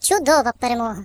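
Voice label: "Flawless", British English; hedgewars; Default uk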